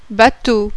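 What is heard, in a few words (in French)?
"BATEAU", French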